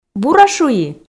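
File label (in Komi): бура шуи, Komi, female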